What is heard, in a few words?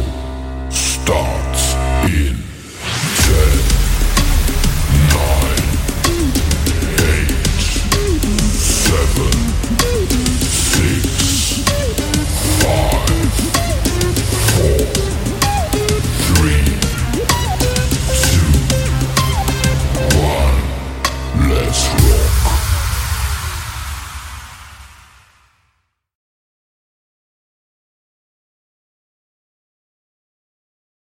Show Opener Intro With Voice Sound Effect